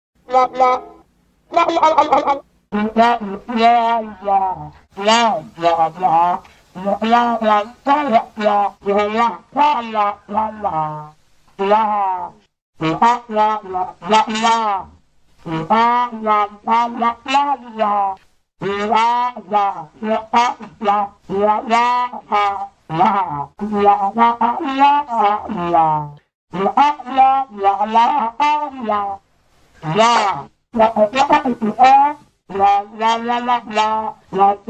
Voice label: Teacher Speaking Sound Effect; Charlie Brown